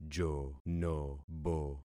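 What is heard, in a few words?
"jonobo", concatenated syllables; Affixing experiment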